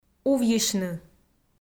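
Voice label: "увйысьны", Komi, female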